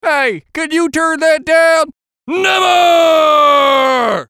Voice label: VOICE COMICAL; Radio Voice -Could You Turn That Down Never; Funny Sound

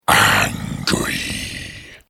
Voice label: The word ‘Angry’ spoken in deep, gruff voice.; Attitude

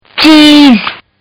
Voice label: Kid Says Geez; Talking; Partners In Rhyme

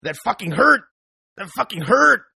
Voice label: Toughguy Hurt English, Tough Hispanic Male; Exclamation

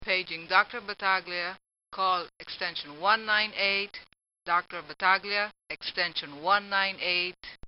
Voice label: Paging Doctor, hospital; Hospitals; Backgrounds; Bobby Cole

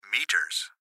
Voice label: Gps Voice, Male; Meters; Laptop Speaker Adult Male; Recorded Voice; Blastwave

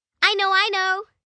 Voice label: "I Know I Know" F, Response, 1 girl, 9 yrs.; Talking; Voice Box

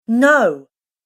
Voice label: "No" Offended Female Voice; Recorded Voice; Recorded Voice Sound Effects

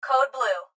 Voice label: Hospital Pa Voice, Hospital Pa Female- Code Blue; Pa Announcement; Emergency

